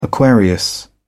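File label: "aquarius", British English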